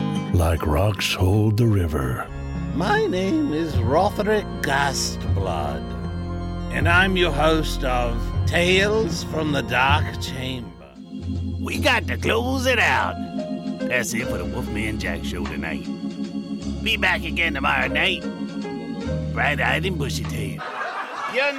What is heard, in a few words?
Character Voices